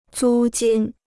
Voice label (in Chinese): "租金", Chinese